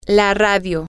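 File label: Spanish, the radio, female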